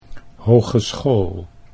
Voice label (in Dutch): "hogeschool", Dutch